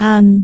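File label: "aan2", Cantonese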